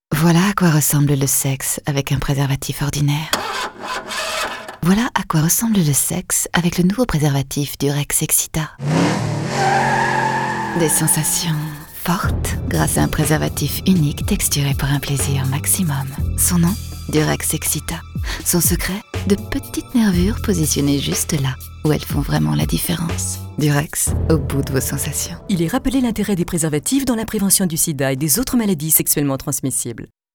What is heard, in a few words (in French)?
Voix off Sexy; Durex